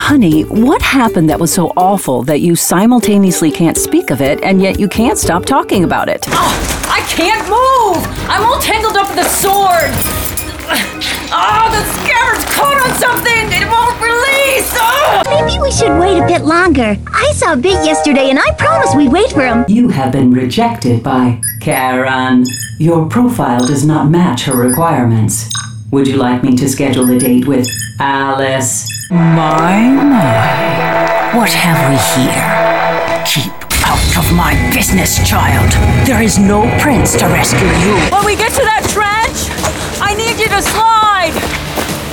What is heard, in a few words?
Animation